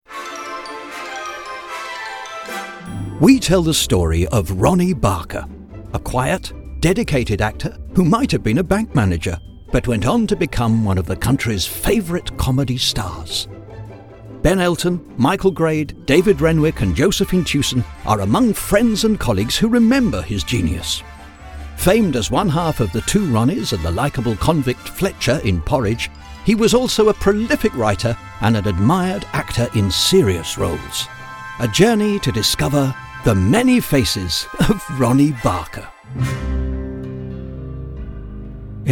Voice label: Documentary